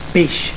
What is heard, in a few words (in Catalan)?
"peix", Catalan